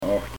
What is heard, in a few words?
"acht", Pennsylvania Dutch; acht(e); 8